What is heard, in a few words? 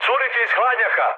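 Serbian damaged water mood high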